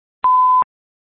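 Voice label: Fire Dispatch, Scanner audio recording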